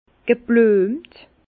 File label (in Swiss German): "gebluemt", Bas Rhin; vin; fleuri